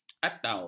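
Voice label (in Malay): "atau", Malay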